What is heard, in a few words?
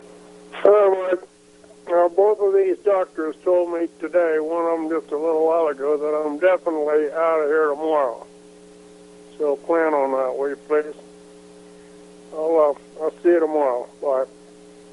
voicemail